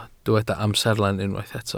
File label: "amserlen", Welsh